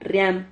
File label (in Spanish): "rriam", Silabas